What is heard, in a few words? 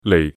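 Cantonese "lei4"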